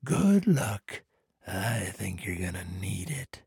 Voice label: trader male neutral quest accepted

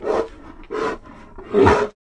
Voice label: Druid of the claw morphed pissed; 暗夜精灵

